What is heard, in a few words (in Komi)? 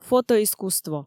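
"фотоискусство", Komi, female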